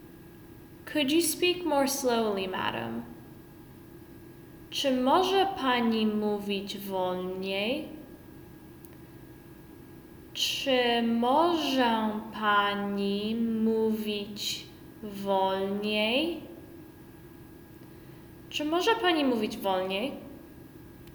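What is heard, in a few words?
Polish Could you speak more slowly Madam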